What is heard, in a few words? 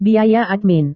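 "biaya admin", Indonesian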